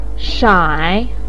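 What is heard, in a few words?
"shai", Mandarin pinyin, third tone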